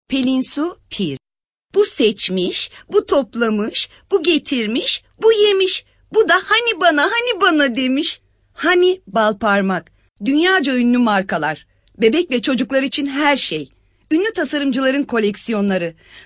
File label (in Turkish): turkce kadin